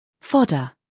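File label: "humor", British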